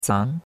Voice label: "zang2", chinois; Cours de Chinois

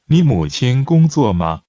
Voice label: Mandarin Conversation

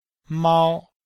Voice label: "mao1", chinois; Cours-de-Chinois

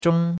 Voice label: "zhong1", chinois; Cours de Chinois

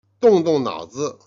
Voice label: "jian", Chinese

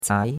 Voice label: "zai2", chinois; Cours de Chinois

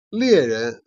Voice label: "jian", Chinese

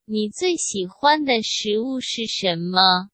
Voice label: Mandarin Conversation

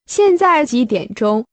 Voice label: Mandarin Conversation

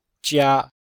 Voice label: "jia1", Chinese

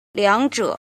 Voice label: "jin", Chinese

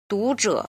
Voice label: "jin", Chinese

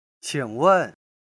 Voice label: "qing3 wen4", Chinese

